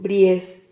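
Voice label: "bries", Spanish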